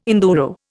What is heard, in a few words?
"enduro", Indian